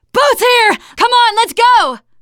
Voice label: arrive boat initial, teengirl; player; survivor; voice